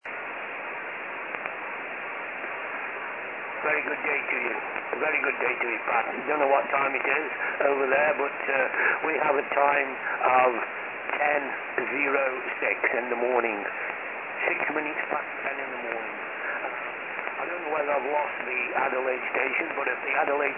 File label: 3-way QSO; Manchester; sacramento california; Adelaide Australia